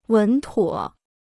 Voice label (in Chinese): "稳妥", Chinese